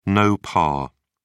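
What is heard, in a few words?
"no par", British English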